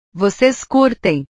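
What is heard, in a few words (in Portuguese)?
"vocês curtem", Portuguese; portugues/verbos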